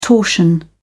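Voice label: "torsion", English, British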